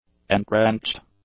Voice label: "entrenched", English; adjectives